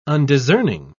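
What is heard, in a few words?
"undiscerning", British English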